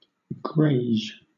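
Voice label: "greige", British English; pronunciations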